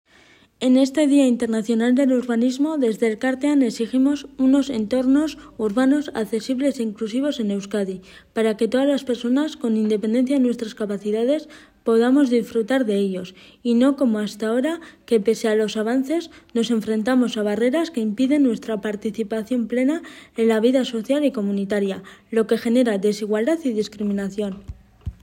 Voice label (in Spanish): castellano; derechos de las personas con discapacidad física en euskadi